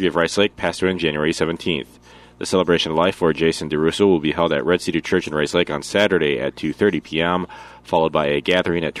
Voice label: Funeral Announcements